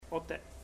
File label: mother, chamacoco; Puerto Diana